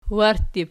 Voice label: "warttib", Archi